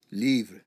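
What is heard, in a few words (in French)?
"livre", French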